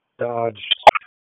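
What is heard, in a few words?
Police Spec Ops Tac, radio; Raleigh; Spec Ops Tac 4; wake; p25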